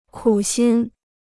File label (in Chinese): "苦心", Chinese